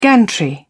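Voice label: "gantry", English, British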